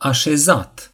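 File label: "sit", Romanian; gcompris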